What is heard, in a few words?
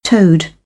"toad", British English